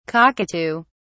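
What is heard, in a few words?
"cockatoo(s)", British English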